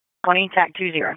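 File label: Fire Department Dispatch, P25; wake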